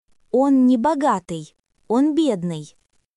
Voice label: Russian, russian phrases insults; ロシア語のネガティブなフレーズ